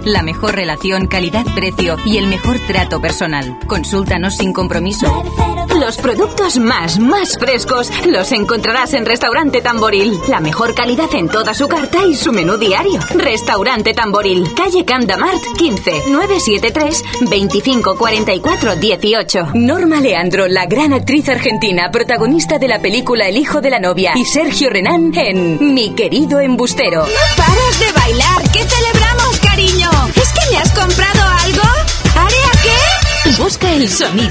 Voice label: Female Spanish Euro